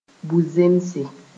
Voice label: "bu-zẽmse", moore

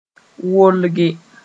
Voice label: "wolge", moore

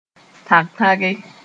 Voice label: "tãg-tãge", moore

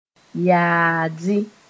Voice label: "yaadɩ", moore; meat that is flabby

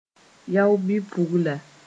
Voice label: "yao-bi-pugla", moore

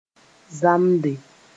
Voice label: "zamde", moore

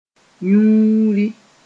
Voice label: "yũuri", moore

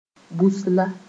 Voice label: "busla", moore

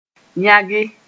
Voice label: "yãge", moore; to enclose an area

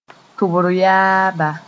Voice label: "tʋbr yaaba", moore; ear drum

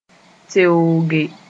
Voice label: "teooge", moore

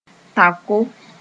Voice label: "taoko", moore

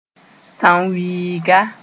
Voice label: "tãnwĩiga", moore

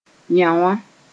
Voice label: "yãoã", moore

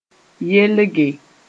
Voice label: "yelge", moore

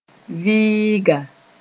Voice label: "vɩɩga", moore

